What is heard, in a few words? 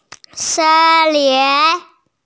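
"sen1lin2" Mandarin Chinese, female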